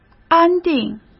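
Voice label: "安定", Mandarin Chinese; hsk; chinese-flashcards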